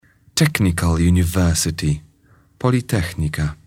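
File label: "technical university", English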